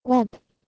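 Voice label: "webh" Proto-Indo-European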